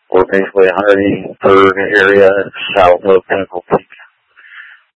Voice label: Mutual Aid-Intersystem Interop B, scanner